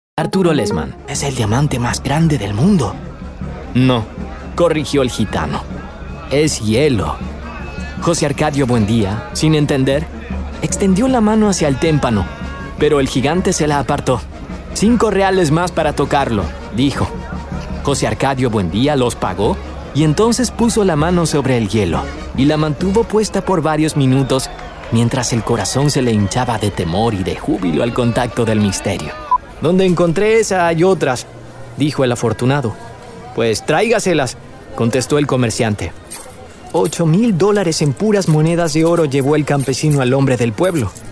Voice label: spanish latin american narration